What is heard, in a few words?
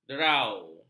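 "derau", Malay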